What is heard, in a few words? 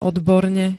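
"odborne", Slovak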